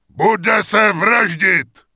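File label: Grunt Yes Attack, Czech; wowpreklad; czwow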